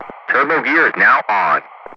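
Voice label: "turbo gear is now on", English, Ground Crew; DCS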